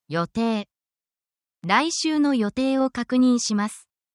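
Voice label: Japanese word, essential Japanese phrases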